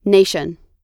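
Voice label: "nation", American English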